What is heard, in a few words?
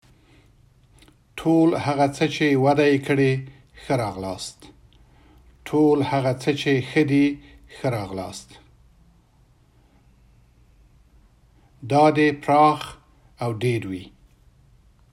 pashto